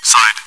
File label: chitchat; svencoop